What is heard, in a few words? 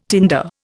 "tinder", Indian